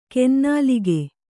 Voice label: "ಕೊನೆನಾಲಿಗೆ", Kannada